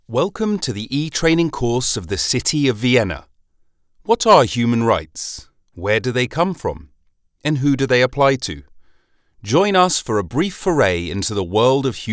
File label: english british audio guides